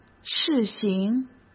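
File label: "试行", Mandarin Chinese; chinese-flashcards; hsk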